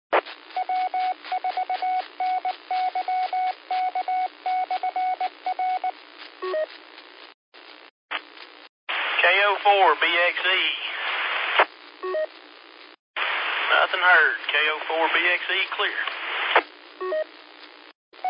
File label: qso rec simplex logic